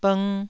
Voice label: "bng1", taiwanese Syllables

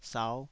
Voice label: "sau5", taiwanese

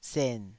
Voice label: "sen3", taiwanese syllable

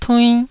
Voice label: "tuinn1", taiwanese

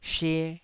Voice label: "she2", taiwanese Syllables

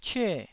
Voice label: "cyue4", Taiwanese syllable

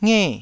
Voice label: "nge4", taiwanese syllables

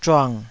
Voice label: "zhuang3", Taiwanese

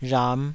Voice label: "rham5", Taiwanese

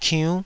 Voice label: "kiunn5", taiwanese syllables

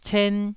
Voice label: "cen1", taiwanese syllables

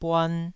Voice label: "buan2", taiwanese

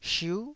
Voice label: "shiu9", taiwanese Syllables

